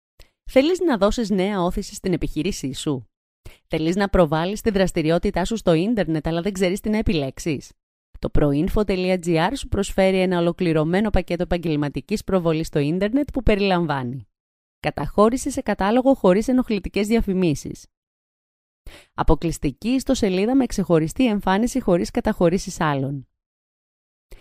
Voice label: greek female; dictor; dictor-sounds